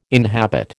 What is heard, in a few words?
"inhabit", American